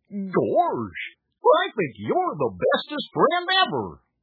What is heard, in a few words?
talking goofy, toys